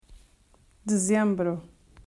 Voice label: Dez, Portuguese

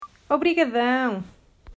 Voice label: "Obrigadão", Portuguese

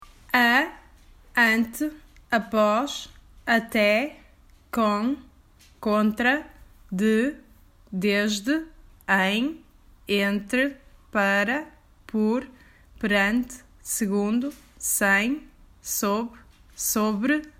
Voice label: Preposições, Portuguese

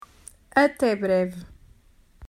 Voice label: "Até Breve", Portuguese

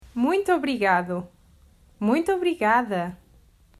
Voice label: "Muito Obrigada", Portuguese

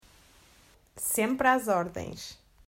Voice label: "Sempre às Ordens", Portuguese

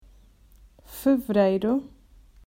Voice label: Fev, Portuguese

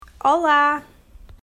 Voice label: "Olá", Portuguese

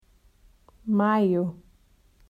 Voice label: Mai, Portuguese

